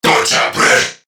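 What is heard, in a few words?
Attack Crewmen, English; Dialog; Barks; Battle; Warframe; CorpusCrewmen; CrewmanA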